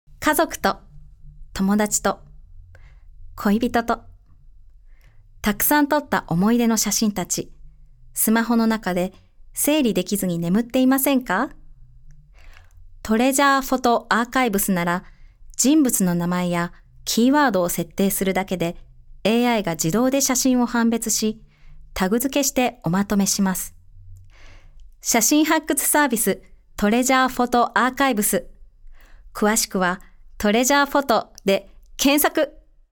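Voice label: narration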